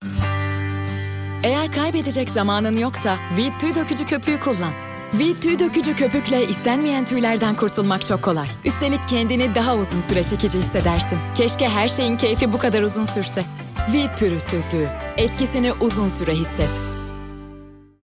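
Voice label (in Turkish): sicak sakin, seslendirme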